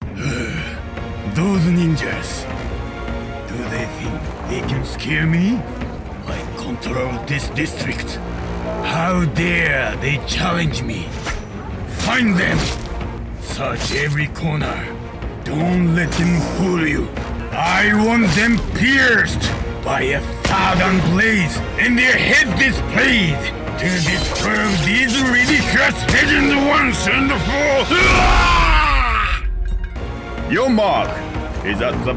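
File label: Japanese, male, English Warrior to DJ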